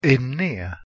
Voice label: "ennea" Ancient Greek